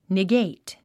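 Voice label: "negate", English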